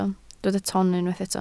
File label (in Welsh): "ton", Welsh